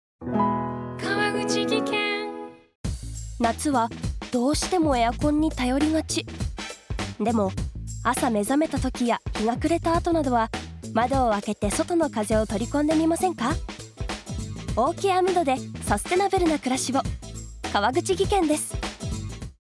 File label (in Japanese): radio ad; amido; sustainable